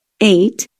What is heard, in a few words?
"8", usa